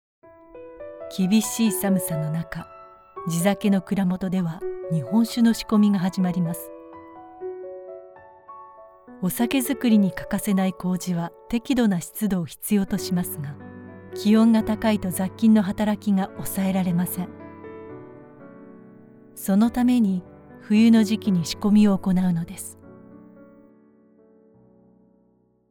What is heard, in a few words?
Narration Japanese